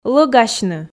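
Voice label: "лӧгасьны", Komi, female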